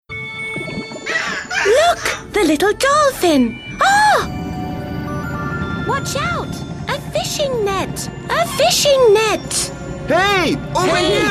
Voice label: female british english commercial